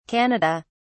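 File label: "canada", British English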